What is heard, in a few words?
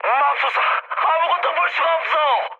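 Korean searchlite mood high; speech; War Thunder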